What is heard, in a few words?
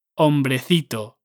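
"hombrecito", Spanish; zdrobnienia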